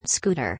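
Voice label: "SCOOTER", English, speech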